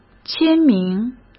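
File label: "签名", Mandarin Chinese; hsk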